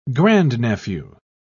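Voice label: "grandnephew", English, British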